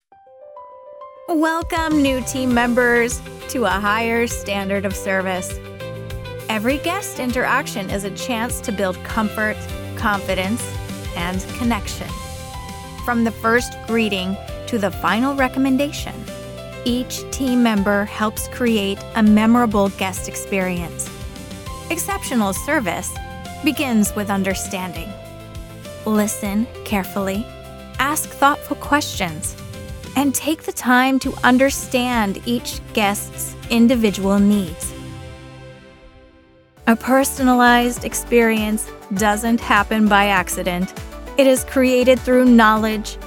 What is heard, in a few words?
Spa Corporate Training